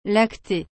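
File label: "lacte", French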